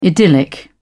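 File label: "idyllic", English, British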